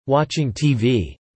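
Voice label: "watching TV" spoken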